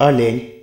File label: deer desc ru; tuxpaint-stamps